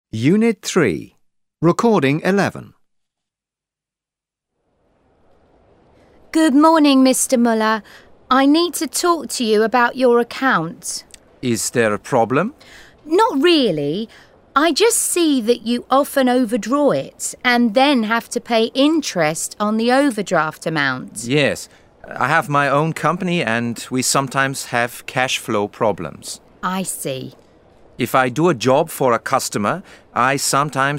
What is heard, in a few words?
English platform audio, english e-learning platform